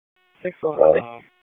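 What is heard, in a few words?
Police Dispatch; wake; p25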